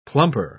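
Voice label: "plumper", British English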